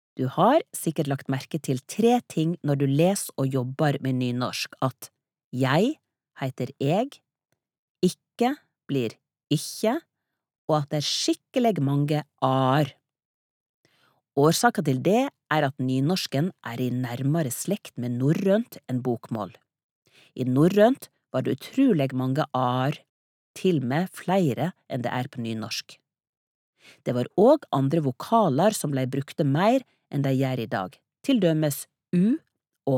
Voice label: no fagbok, norwegian, female

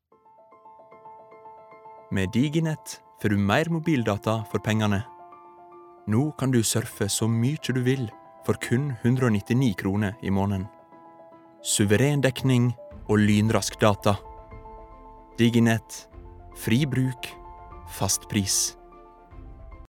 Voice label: no reklame, Norwegian, male

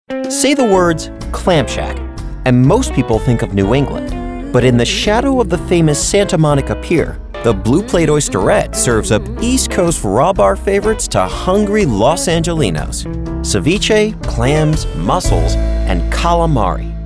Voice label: US narration

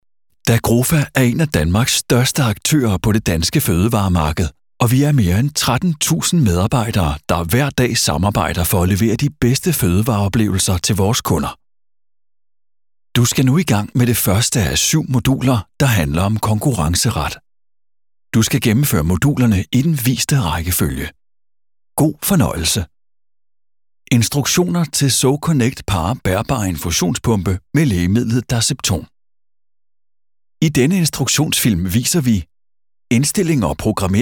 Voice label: dk corporate

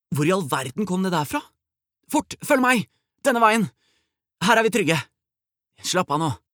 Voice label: dub, character

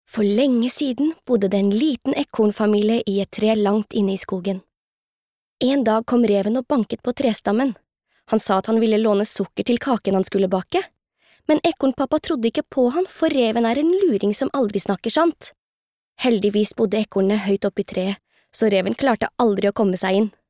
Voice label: no childrens book

